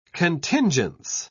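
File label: "contingence", British English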